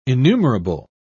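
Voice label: "enumerable", English, British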